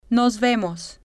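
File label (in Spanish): nos vemos, Spanish, female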